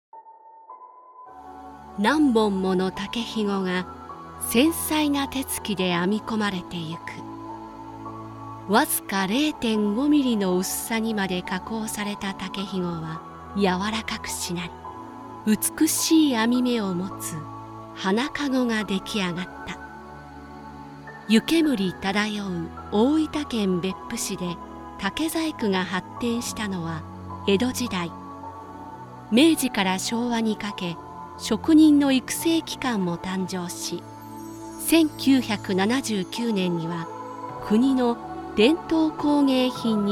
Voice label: female